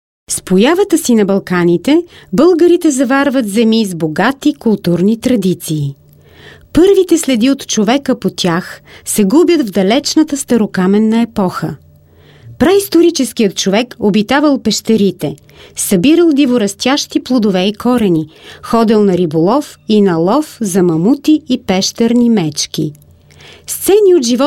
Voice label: Bulgarca Kadin, seslendirme

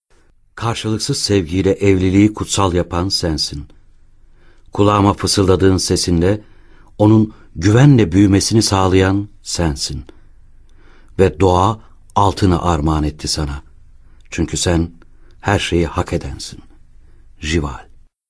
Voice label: erkek sesler, turkce seslendirme

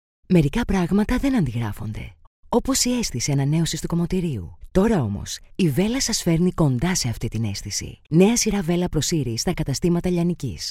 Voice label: Yunanca Kadin, seslendirme; kadin sesler

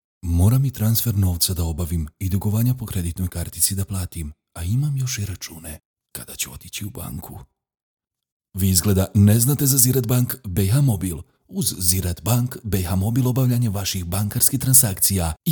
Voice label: Bosnakca Erkek; seslendirme

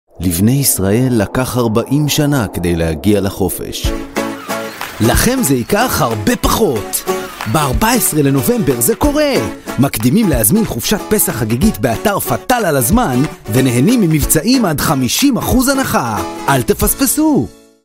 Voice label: İbranice Erkek Ses; seslendirme

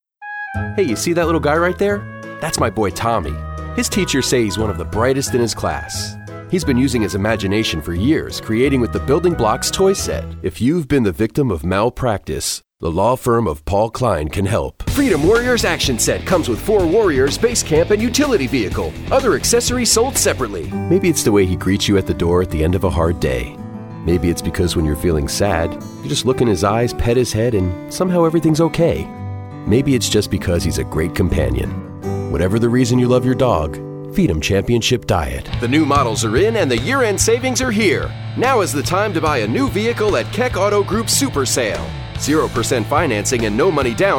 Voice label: Amerikan Ingilizcesi Erkek; Amerikan İngilizcesi Seslendirme

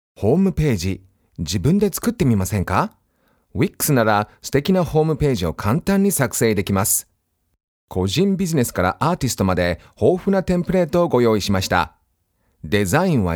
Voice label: Japonca Erkek, seslendirme

